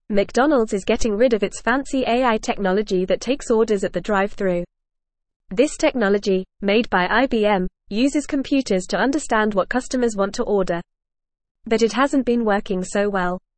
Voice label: English FAST Reading; McDonalds Removes AI Ordering Tech After Comical Mi